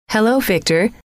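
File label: "hello", female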